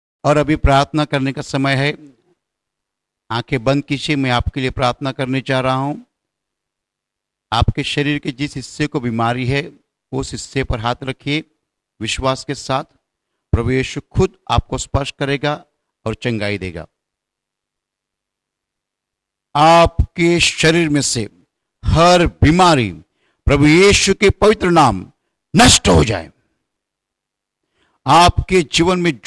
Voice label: Brother Prayer Hindi